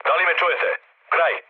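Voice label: Serbian chat; speech; War Thunder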